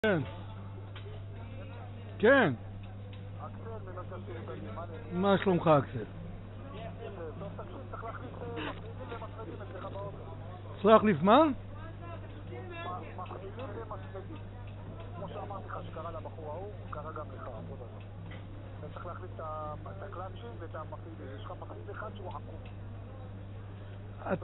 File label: call recording; ADIV GARAGE - E. ARMOZA LTD.